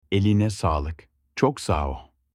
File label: good job in turkish